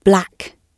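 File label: "black" British English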